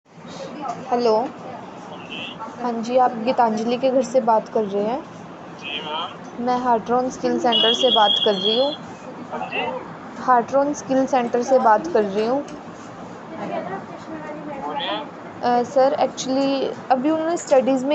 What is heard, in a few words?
call recording